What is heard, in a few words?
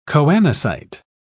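"choanocyte", British English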